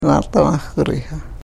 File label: karuk